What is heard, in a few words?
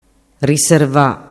"riserva", dialettu